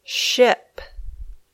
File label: "ship", English